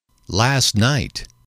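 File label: "last night", English, male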